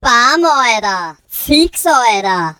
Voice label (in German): bam oida fix oida pitched vocal